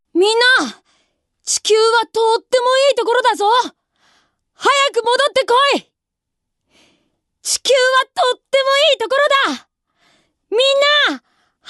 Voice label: boy, female voice; セリフ